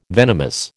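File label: "venomous", American English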